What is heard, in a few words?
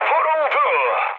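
Turkish aircraft destroyed, mood high, speech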